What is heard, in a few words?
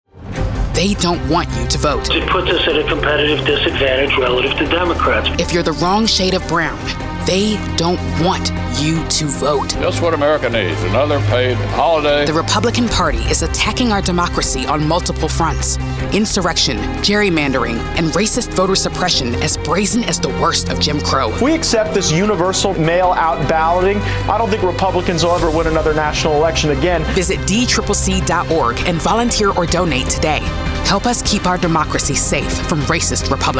Political Dem Urgent GOTV Negative